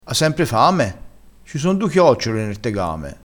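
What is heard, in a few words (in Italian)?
"senso", dialettale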